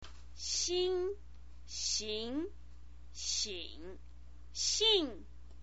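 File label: "xin", Mandarin Chinese pinyin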